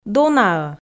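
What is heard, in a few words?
"дона-ӧ", Komi, female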